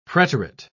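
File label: "preterite", British English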